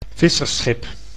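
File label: "vissersschip", Dutch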